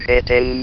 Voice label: "hitting", votrax; VIDEO GAMES